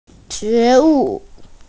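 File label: "awareness", Mandarin; jue2wu4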